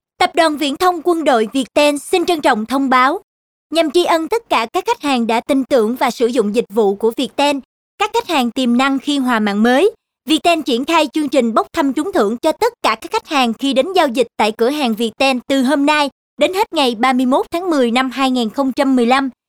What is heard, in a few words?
female vietnamese